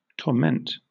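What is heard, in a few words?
"torment", British English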